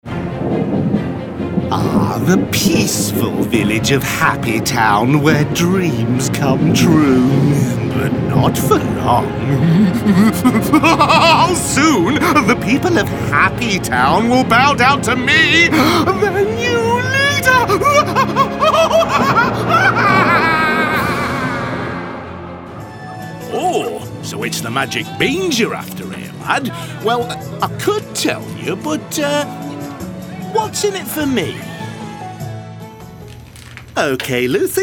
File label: british animation